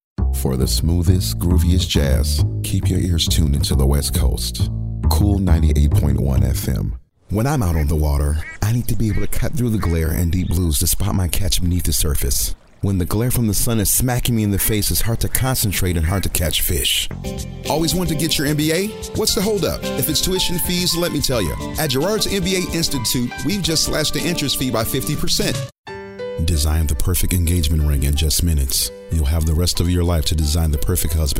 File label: English - American